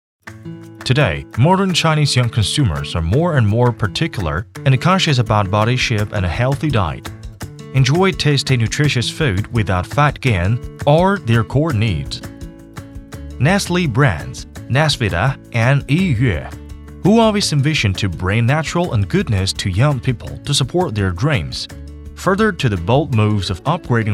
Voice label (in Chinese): 男 英文; 雀巢品牌